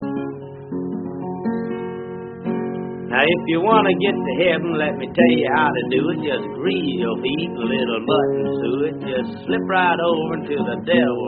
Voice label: Talking Blues; 1961; treasury of field recordings vol 1

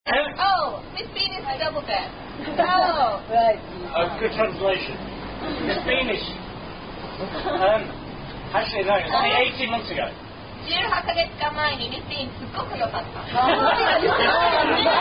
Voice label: Thai Speech; Thai Mai Negis Fuck Off Speech

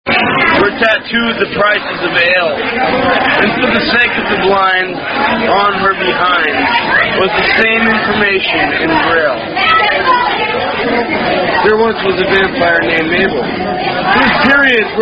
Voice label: Limericks (Recitations)

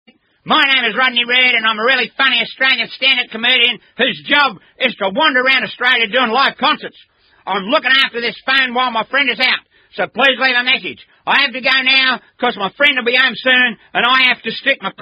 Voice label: Answering Machine Message

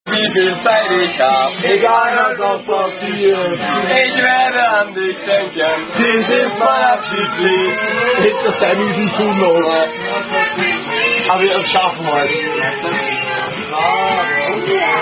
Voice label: German Uno Card Drinking Game Contest

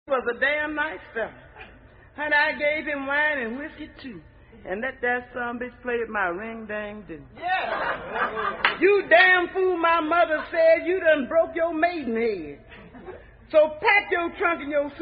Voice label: Recitation; Ring Dang Do; watch it sucker

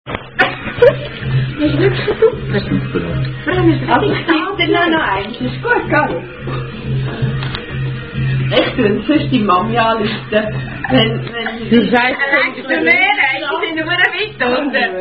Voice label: Trinkspiel, German Card Drinking Game; Unknown German Card Drinking Game

